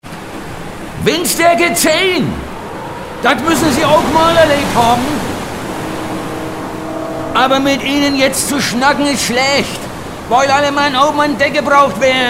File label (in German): Anrufbeantworter; Windstaerke 10